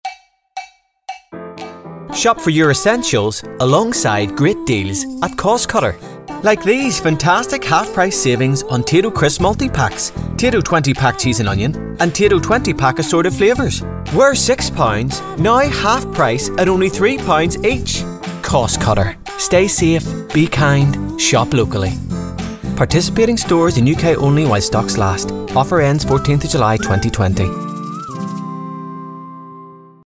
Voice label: male english irish northern irish commercial; costcutter

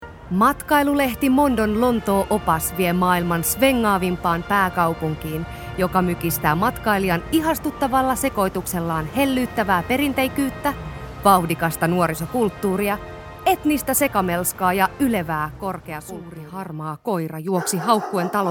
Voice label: female Finnish corporate compilation

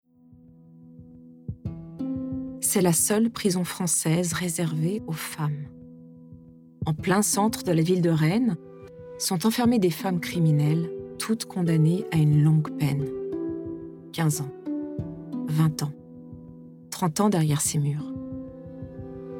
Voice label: female French documentary

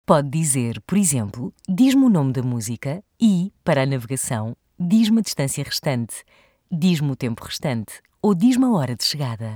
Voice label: female, Portuguese IVR automated voice

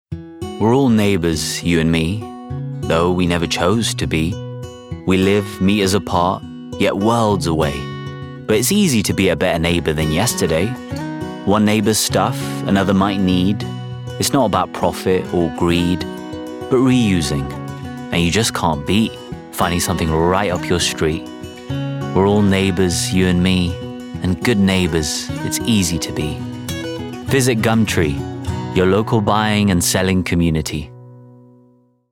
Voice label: male, Albanian and English, English commercial; gumtree

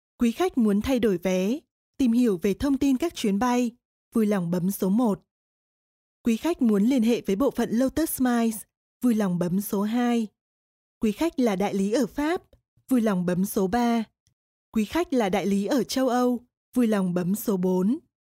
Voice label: female vietnamese airlines ivr

